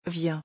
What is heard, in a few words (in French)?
"viens", French